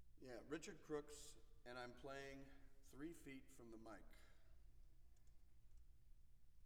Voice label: Percussion Imaging Tests, Drum 3 Feet, Live Studio: Announcement